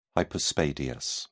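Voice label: "hypospadias", British English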